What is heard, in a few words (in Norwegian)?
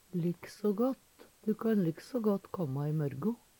"liksågått", Numedalsmål; Veggli; Adverb